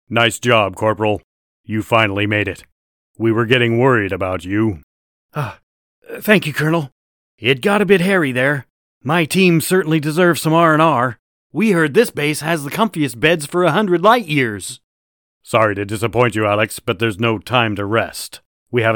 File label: Audiobook